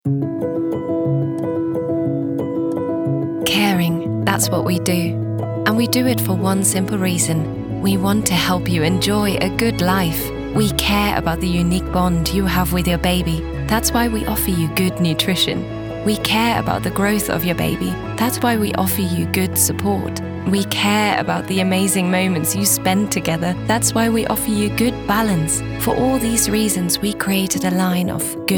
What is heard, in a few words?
VO only; Humana Benelife